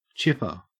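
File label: "chipper", Australian English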